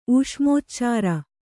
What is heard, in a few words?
"ಊಷ್ಮೋಚ್ಚಾರ", kannada